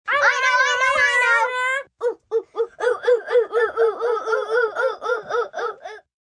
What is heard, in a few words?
I Know Oo Oo Kids, small group of children; VoiceBox